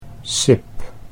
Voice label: "sip", Dutch